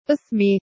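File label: "h1esmi", Proto-Indo-European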